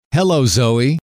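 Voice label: "hello zoe", male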